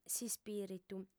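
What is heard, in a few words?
"s'ispiritu", Sardinian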